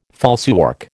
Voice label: "falsework", American